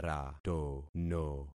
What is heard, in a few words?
"ratono", concat syllables; Affixing experiment